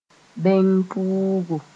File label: "beng-pʋʋgo", Mooré